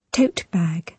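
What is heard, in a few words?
"tote bag", British English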